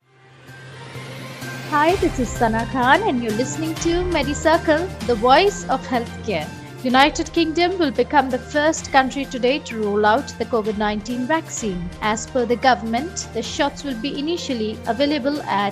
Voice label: english news